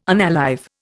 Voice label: "unalive", Indian